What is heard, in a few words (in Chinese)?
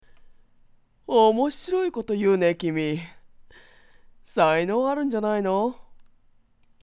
中性样音